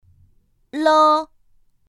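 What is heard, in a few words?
"l", Mandarin Chinese pinyin; pinyin2; pinyinji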